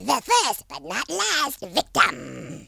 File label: "first blood", Worms Horror speech